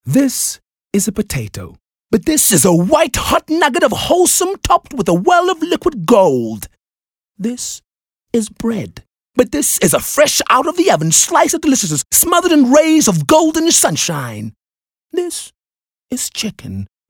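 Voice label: English Dramatic South African Neutral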